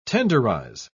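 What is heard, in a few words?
"tenderise", British English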